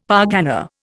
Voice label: "pargana", Indian; Dictionary